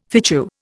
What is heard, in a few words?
"fitchew", Indian